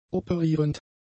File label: "operierend", German; adjectives